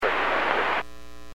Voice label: Aviation 121.5 Emergency

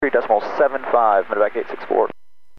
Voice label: Aviation 121.5 Emergency; Radio

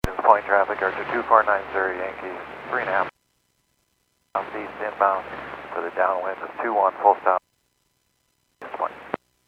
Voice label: Airports 122.7, Radio; KSTE

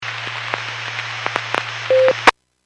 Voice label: Skywarn 146.67; Stevens Point

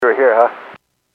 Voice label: Aviation 121.5 Emergency

